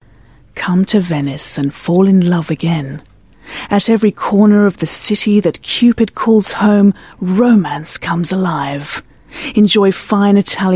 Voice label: UK English, English UK Female; Visit Venice